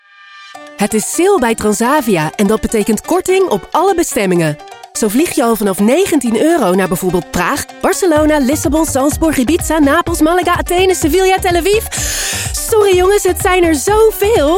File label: Commercials Demo; voice-over